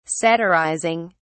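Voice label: "satirizing", British English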